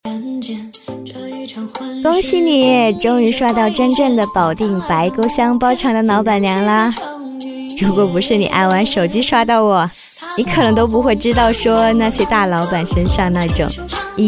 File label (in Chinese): 女 抖音广告; 女007-年轻甜美; 素人自然